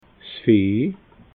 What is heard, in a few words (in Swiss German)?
"vieh", alsace